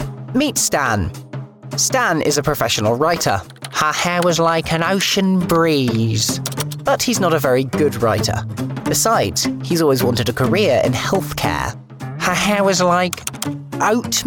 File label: Commercial